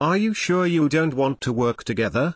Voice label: Male Tauren; Guff; Scabbs

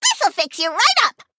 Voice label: Female Gnome

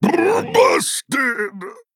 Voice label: Male Tuskarr Death

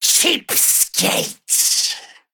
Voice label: Male Sethrak Attack

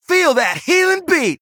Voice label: Male Human

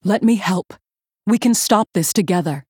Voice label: Female Human; Cariel

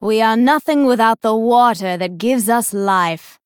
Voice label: Female Dryad

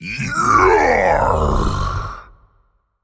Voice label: Male Giant Attack

